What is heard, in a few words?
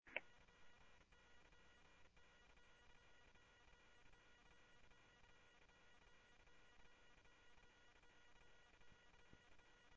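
Mesa Police Department, scanner audio; MESA PD 1 Fiesta MesaPoliceDep; Mesa Simulcast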